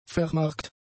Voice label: "vermarkt", German; adjectives